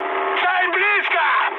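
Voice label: Russian near waypoint mood high, speech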